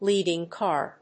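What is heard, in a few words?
"leading car", English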